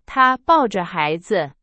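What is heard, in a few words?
Chinese; hsk4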